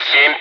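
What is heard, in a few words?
Speech, Russian, air traffic control; Digits; DCS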